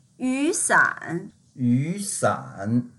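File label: "yu3san3", Mandarin Chinese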